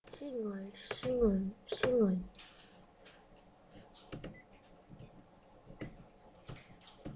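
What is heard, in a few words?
"singer", spoken word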